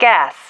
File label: "gas", female